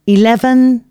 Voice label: announcements female